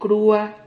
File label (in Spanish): "crua", Silabas